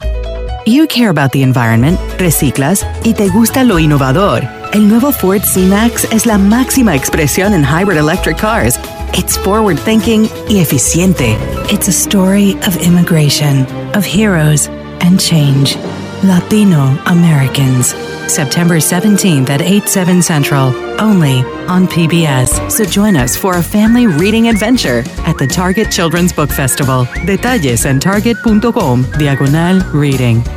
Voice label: Commercial Spanglish